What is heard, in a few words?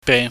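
"bei", Cantonese, mid level tone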